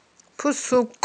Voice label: "пусукI", Aghul